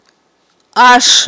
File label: "аш", Aghul